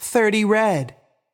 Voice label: voice Over, roulette; europeanroulette3